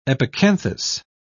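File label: "epicanthus", British English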